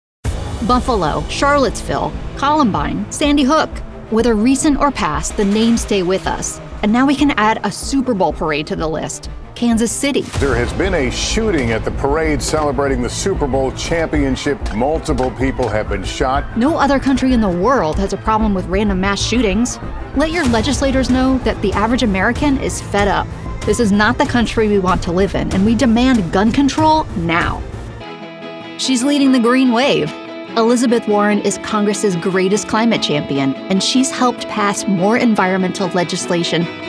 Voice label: Political Demo, voiceovers